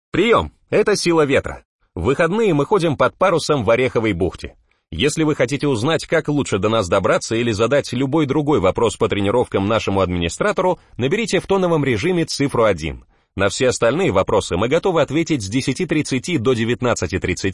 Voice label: IVR